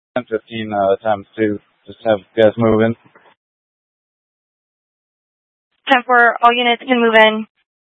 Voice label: Mutual Aid-Intersystem Interop B, scanner

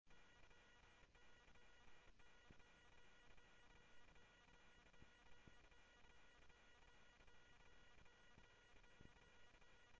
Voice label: Police, scanner audio

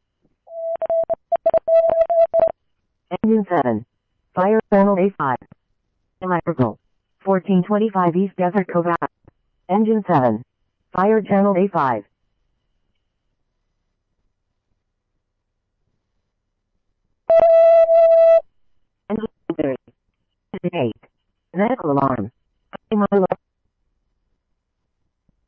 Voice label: Phoenix Fire, scanner; K1 PHX Alarm; RWC; C-Chandler

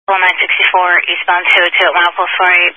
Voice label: Highway Patrol, Phoenix Metro East Area, scanner; DPS

